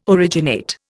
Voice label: "originate", Indian

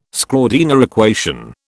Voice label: "Schrödinger equation", British

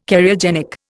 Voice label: "Cariogenic", Indian

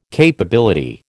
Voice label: "capability", American

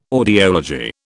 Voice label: "audiology", British

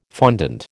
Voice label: "fondant", American

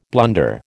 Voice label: "blunder", American

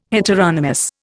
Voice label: "heteronomous", Indian

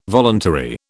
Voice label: "voluntary", British

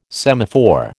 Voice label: "semaphore", American

